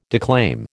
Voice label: "declaim", American